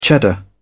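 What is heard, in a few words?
"cheddar", British English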